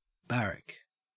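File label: "barrack", Australian English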